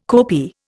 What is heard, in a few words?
"kopi", Indian